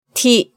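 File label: "ti", Japanese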